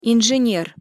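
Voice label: "inzener", Russian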